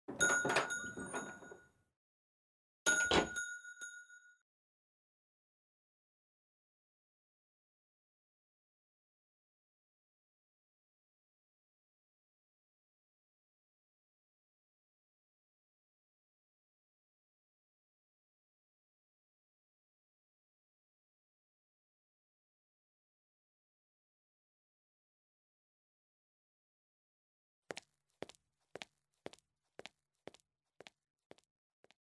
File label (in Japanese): 再来店、出迎え、自己紹介, ASMR; しずくとりさ